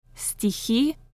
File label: poem